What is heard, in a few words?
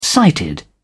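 "sighted", British English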